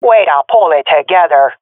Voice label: round won quickly, swat fem; vo; Counter Strike Model Pack; CS:GO